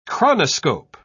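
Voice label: "chronoscope", British English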